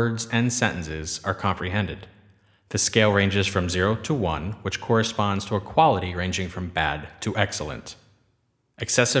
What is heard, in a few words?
Treated Speech; Britton Falls